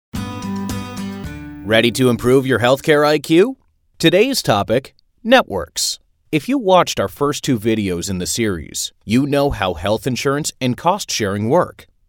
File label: eLearning